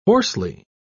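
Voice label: "hoarsely", British English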